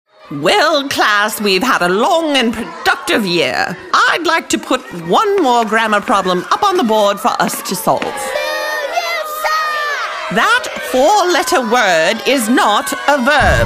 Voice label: Animation